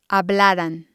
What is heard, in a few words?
"hablaran", spanish